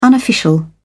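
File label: "unofficial", British English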